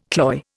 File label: "cloy", Indian